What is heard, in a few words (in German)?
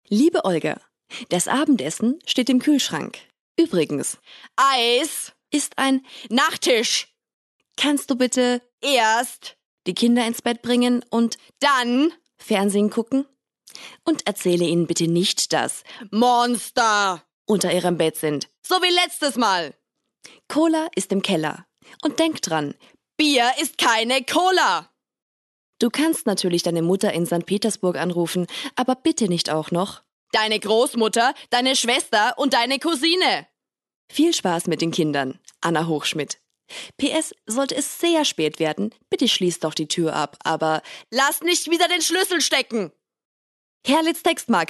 Werbung; Textmarker